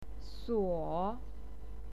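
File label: "suo", Mandarin Chinese, third tone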